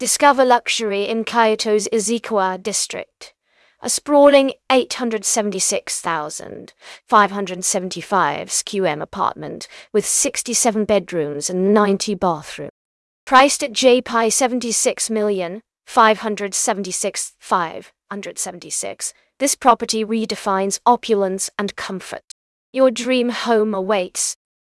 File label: narration audio